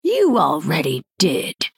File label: female night elf greetings